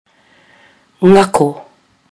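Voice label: "ngako", Māori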